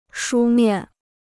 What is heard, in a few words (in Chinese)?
"书面", Chinese